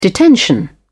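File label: "detention", British English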